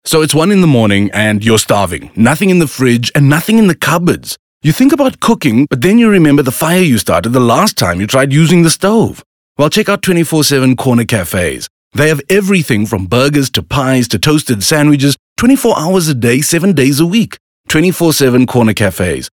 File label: English Conversational